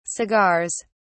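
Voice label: "cigars", British English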